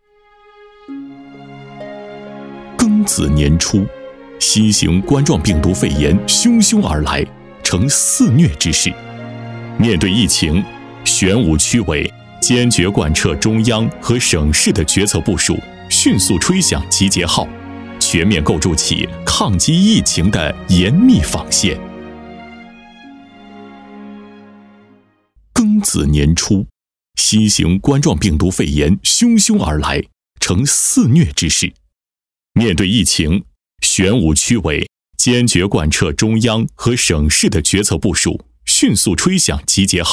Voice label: 男 专题片 深情; 夺取双胜利我们在行动配音稿

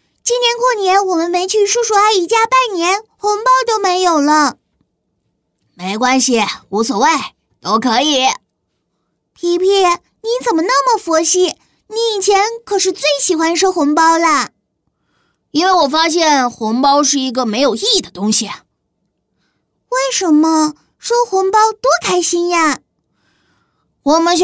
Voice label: 女 男女童 红包

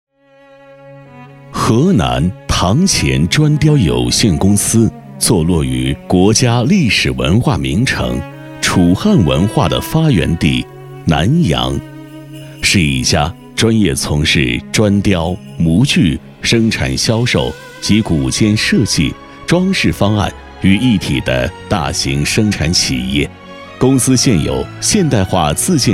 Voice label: 男 宣传片 讲述感 砖雕; 唐乾砖雕

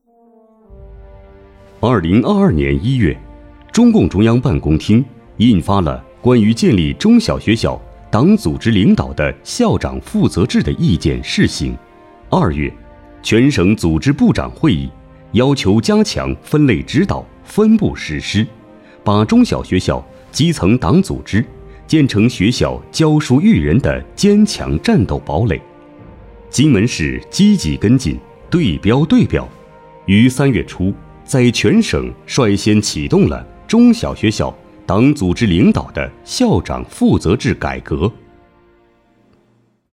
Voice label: 男 宣传片 党建宣传

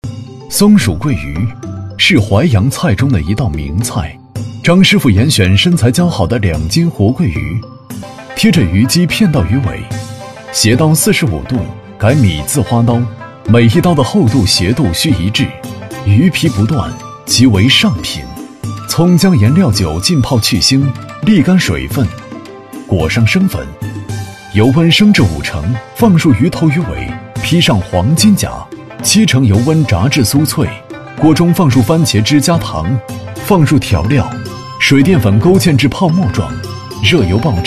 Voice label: 男 舌尖; 松鼠桂鱼

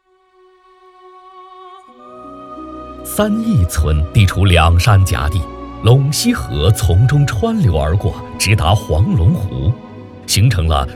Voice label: 男, 专题片; 基层河湖管护看四川

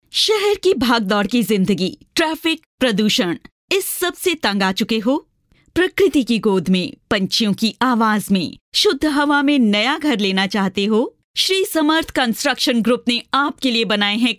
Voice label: 外籍印女 富有感情 交通污染

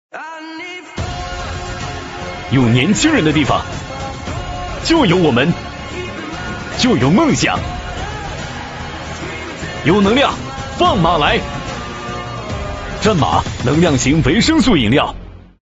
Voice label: 男 广告 功能饮料; 战马功能饮料

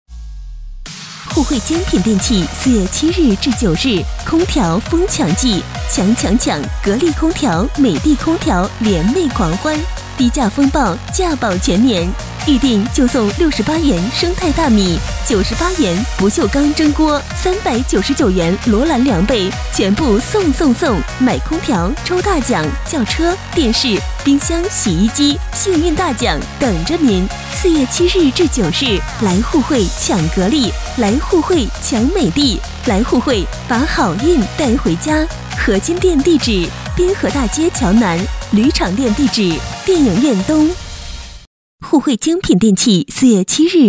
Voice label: 女 促销叫卖

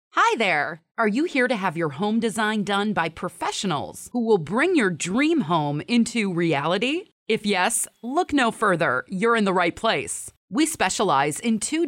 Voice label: 广告 轻快

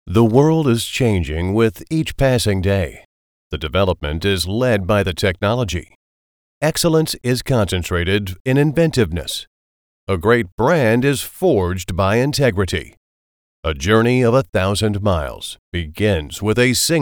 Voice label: 英文 宣传; 英文粤盛国际鞋材有限公司宣传

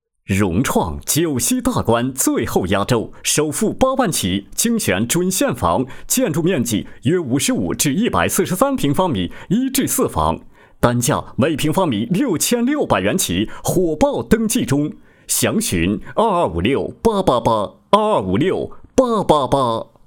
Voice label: 男 广告促销 楼盘广告; 融创

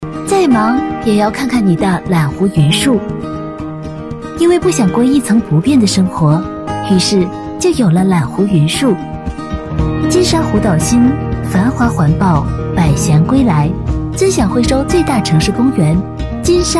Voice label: 女 MG; 揽湖

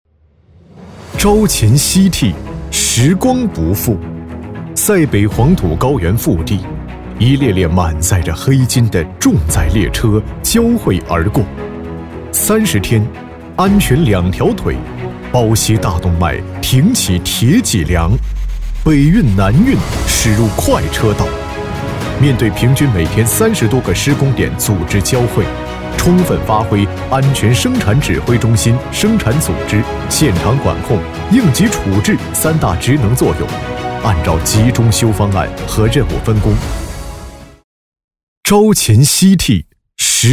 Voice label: 男 工程解说 专题片; 集中修专题片; 奋进

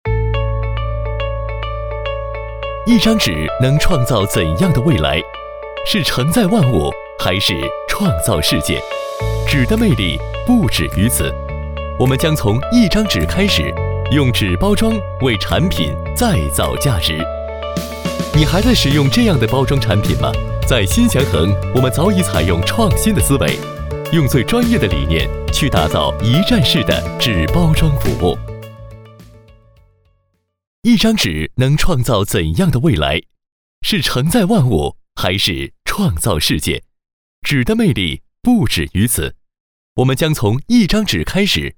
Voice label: 男 中文 年轻现代; 新祥恒

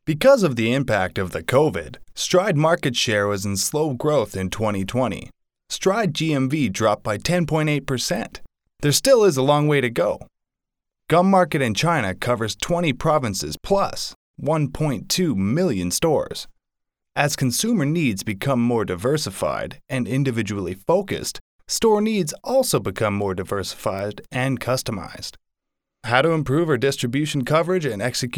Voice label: 金融市场分析 嘶哑

